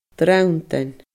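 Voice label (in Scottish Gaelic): "drannd eun", Scottish Gaelic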